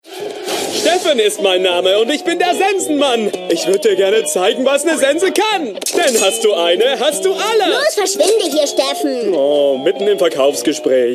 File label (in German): CHARGE ÜBERDREHT